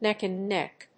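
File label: "neck and neck", English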